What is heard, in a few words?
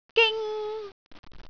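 "ging1", Cantonese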